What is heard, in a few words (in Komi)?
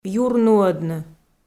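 "юрнуӧдны" Komi, female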